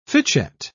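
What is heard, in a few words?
"fitchet", British English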